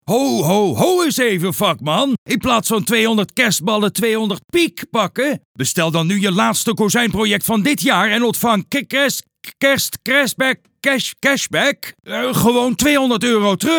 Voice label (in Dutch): Kerst commercial; Toelevering